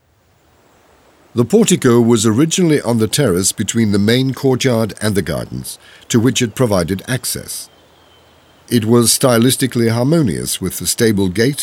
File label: audioguide en adulte; jardin; modave